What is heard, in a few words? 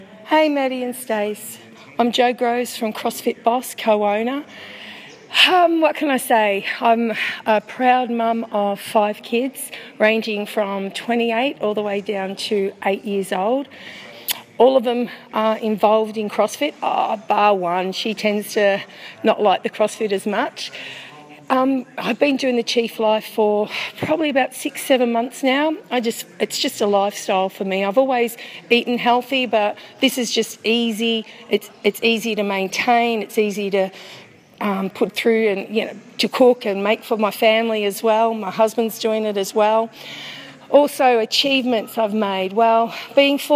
Testimonial